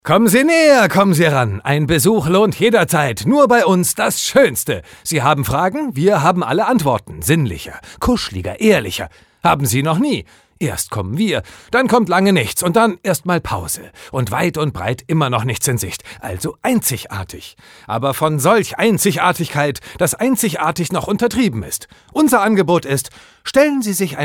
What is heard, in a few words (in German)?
Schauspiel Energisch